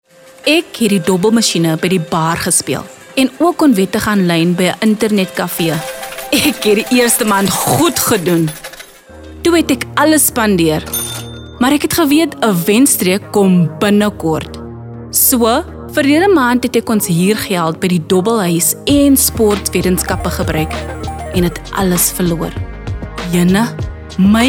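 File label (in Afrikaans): Afrikaans, Awareness Campaigns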